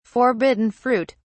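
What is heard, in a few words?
"forbidden fruit", en, uk British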